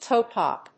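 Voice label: "Toy Pop", English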